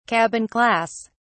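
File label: "cabin class", British English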